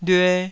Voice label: "lyue2", Taiwanese syllable